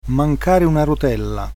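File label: crazy Italian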